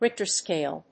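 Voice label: "Richter scale", English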